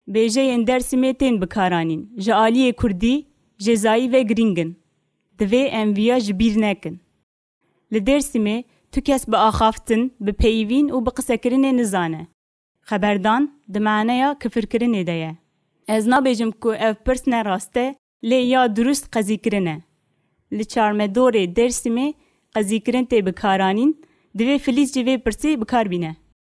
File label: Kurdish Behdini